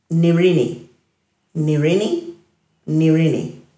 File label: "nirini-", Dhurga